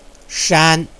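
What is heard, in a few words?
"shan1", Chinese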